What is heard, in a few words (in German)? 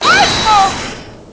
"Einspruch", German